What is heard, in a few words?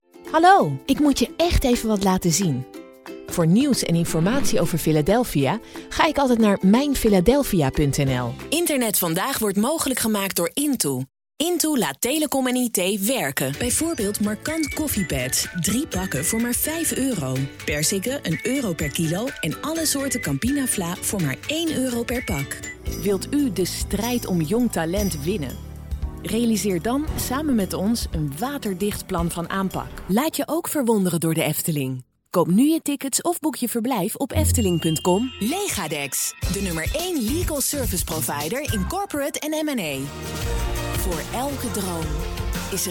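F, Dutch Netherlands, female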